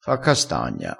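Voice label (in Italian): "fa castagna", dialettale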